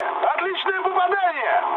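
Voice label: Russian bomb success mood med, speech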